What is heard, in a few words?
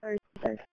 Police Dispatch